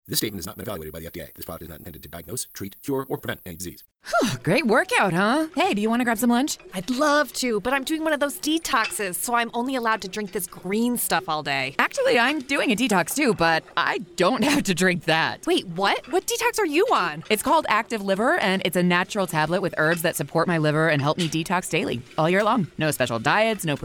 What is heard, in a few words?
female; NEW NORDIC